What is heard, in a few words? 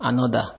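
anoder, Naija, male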